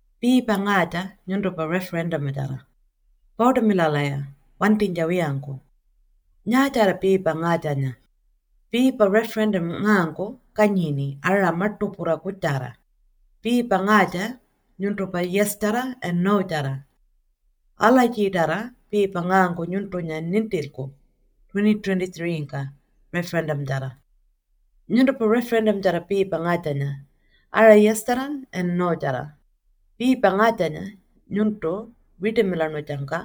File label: Pintupi-Luritja; Referendum Booklet; AEC